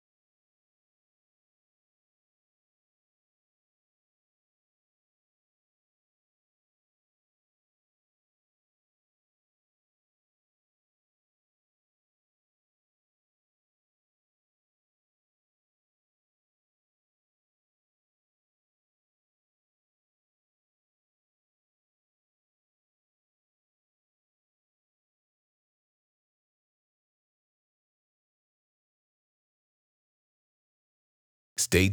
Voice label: Weight Room VO; War Room; To Hell and Back